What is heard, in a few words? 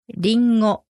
"ringo", Japanese; apple